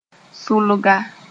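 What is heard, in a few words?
"sʋlga", Mooré; weaver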